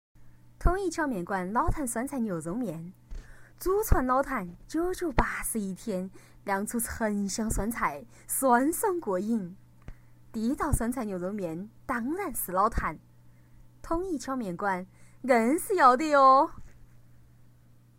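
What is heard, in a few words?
girl Sichuan dialect, Chinese; sichuanfangyan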